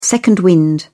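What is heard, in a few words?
"second wind", British English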